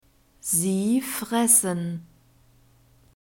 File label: "sie fressen", German; verbs present tense irregular